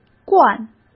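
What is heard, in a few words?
"罐", Mandarin Chinese; chinese-flashcards; hsk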